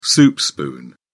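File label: "soup spoon", British English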